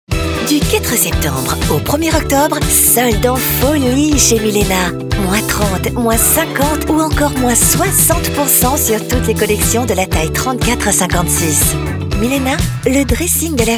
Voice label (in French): Promo